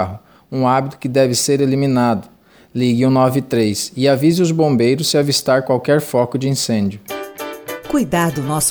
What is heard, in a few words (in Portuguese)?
SPOT VALE NOTICIAS QUEIMADAS